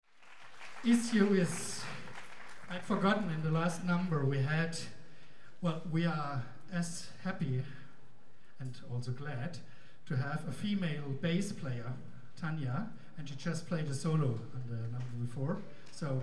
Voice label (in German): Ansage